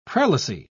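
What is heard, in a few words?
"prelacy", English, British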